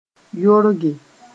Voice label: "yorge", moore

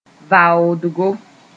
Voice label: "vaodgo", moore

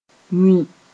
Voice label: "wĩ", Moore

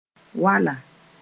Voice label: "wala", Mooré

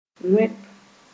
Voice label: "wẽp", Moore

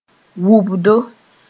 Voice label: "wʋbdo", moore; vomiting

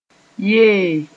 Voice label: "yee", moore

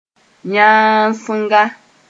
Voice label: "yãasenga", moore; rough in texture

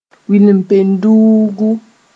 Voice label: "wilpenduugu", Moore

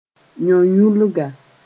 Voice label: "yõ-yũlga", moore

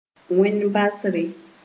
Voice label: "wẽn-basre", Moore

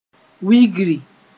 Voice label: "wigri", Moore

